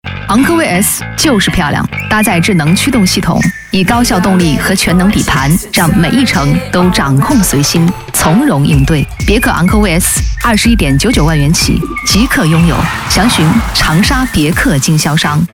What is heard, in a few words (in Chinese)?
女声; 别克昂科威